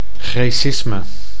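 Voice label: "greacisme", Dutch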